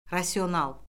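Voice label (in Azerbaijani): "rasional", Azerbaijani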